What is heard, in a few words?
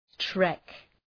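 "trek", English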